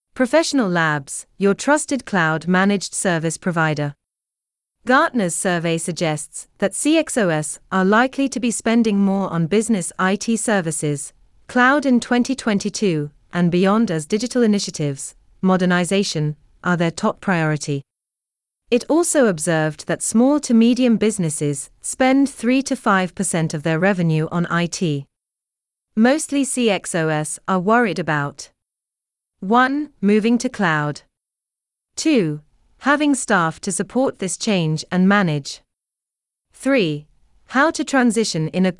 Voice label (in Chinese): 外籍英语女, 商业合作